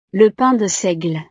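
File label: "le pain de seigle", French; desayuno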